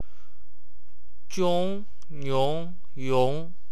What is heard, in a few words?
"iong", wu chinese